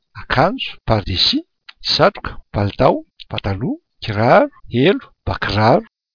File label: malagasy clothes